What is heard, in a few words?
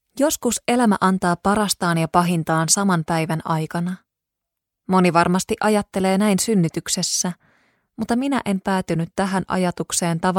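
Finnish, Audiobook, female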